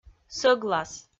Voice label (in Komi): "сӧглас", Komi